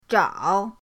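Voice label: "zhao", Mandarin pinyin, third tone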